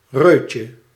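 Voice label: "reutje", Dutch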